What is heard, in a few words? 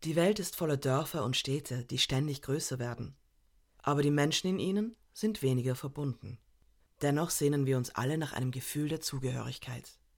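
German, Animation; voice demos; GoLocalise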